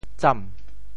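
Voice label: "tsam2", Teochew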